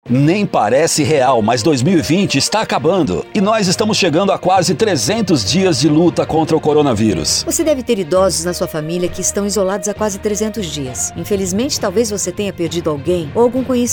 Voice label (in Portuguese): spot alerta; coronavirus; Linha Alerta; Radio